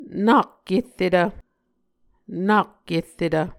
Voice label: "nak kerretv", Muscogee

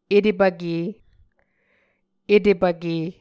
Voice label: "etepvke", muscogee

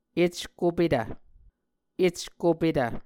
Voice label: "eckopetv", Muscogee

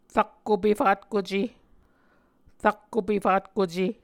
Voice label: "rakko pefatkuce", Muscogee

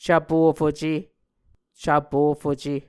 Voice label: "cvpofuce", muscogee; Mvskoke; SIL International

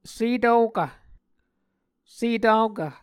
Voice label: "setvokv", Muscogee

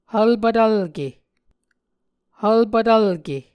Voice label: "hvlpvtvlke", Muscogee

